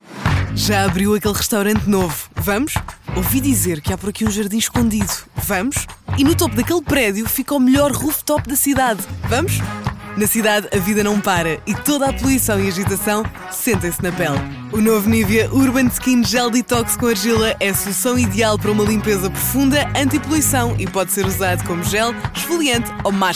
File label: SPOT